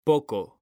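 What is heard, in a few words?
"poco", Spanish, male; mekanta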